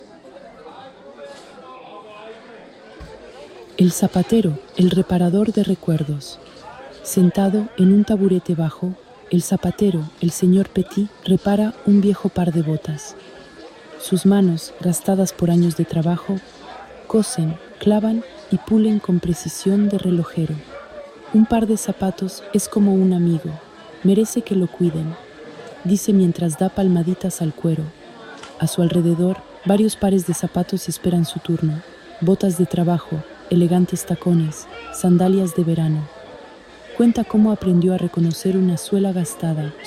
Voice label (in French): place marche narrateur es dubbed